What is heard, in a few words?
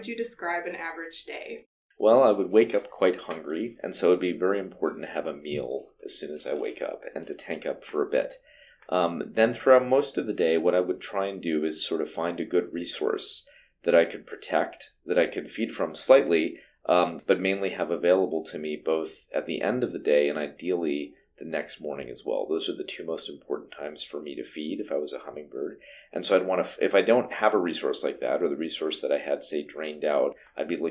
hummingbirds long interview